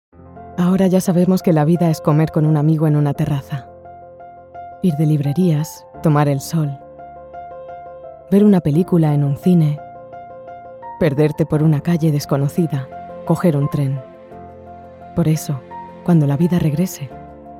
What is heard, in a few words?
hot calm sexy voiceover